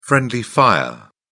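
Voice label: "friendly fire", British English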